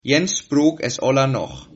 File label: one language, Sylt Frisian